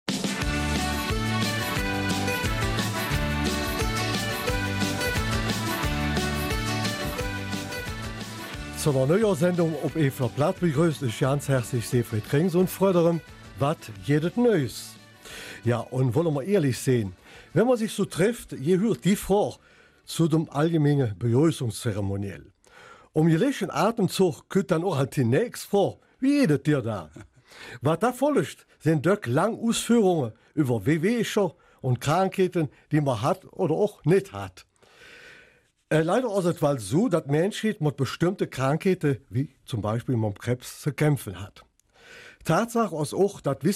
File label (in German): Eifeler Mundart; Europäische Eibe als Antikrebsmittel